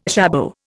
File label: "jabot", Indian